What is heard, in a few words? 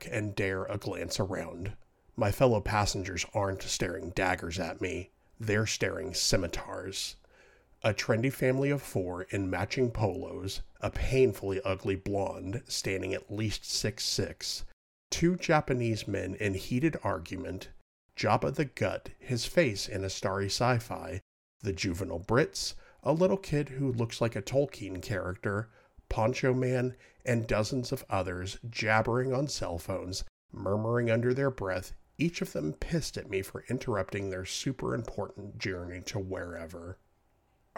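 audiobook audition, Deep Male Voice; home studio; voice artist in the Phoenix AZ area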